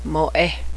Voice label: elk, cheyenne language